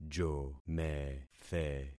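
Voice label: "jomethe", concatenated syllables; Affixing experiment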